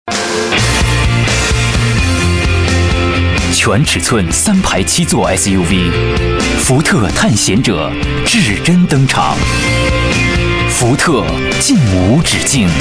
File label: chinese voice over male commercial